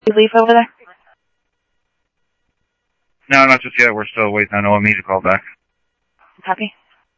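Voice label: Simulcast police department, scanner audio trunk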